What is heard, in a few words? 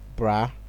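"brah", Nigerian Pidgin, male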